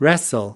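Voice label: "wrestle", US English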